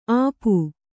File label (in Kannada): "ಅಪು", Kannada